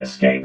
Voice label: "escape", robot vox